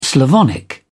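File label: "slavonic", British English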